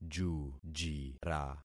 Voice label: "jujira", concat syllables; Affixing experiment